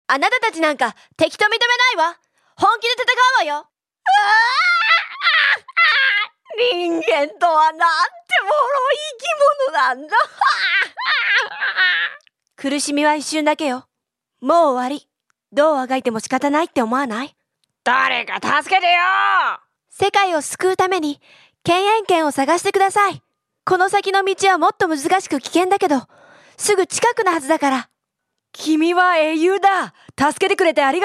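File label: Japanese character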